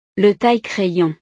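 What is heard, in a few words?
"le taille crayon", French; utiles escolares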